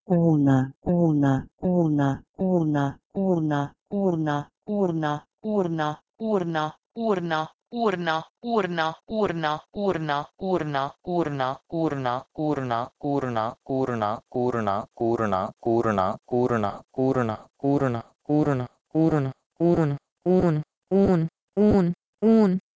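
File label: Proto-Indo-European wlh1nh2 to Urdu uun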